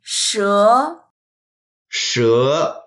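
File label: "she2", Mandarin Chinese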